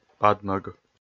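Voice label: "падмӧг", Komi, male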